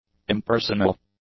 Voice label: "impersonal", English; adjectives